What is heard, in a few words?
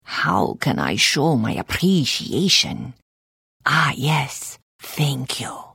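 Follow, Khajiit female; The Elder Scrolls III - Morrowind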